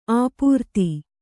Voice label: "ಅಪ್ರತಿ", Kannada

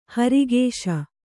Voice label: "ಹಿರಾಕಸ", Kannada

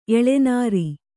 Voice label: "ಎಳೆನೀರು", Kannada

